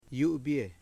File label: "goodbye", Foe